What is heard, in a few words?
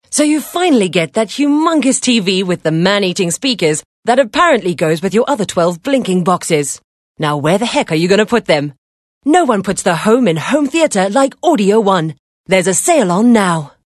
English Hard Sell